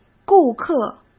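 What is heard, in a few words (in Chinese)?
"顾客", Mandarin Chinese; chinese flashcards; hsk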